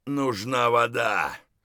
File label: dehydrated; Escape from Tarkov